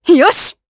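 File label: Vox Happy, Japanese; Glenwys; kingsraid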